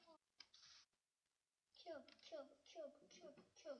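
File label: "cube", spoken word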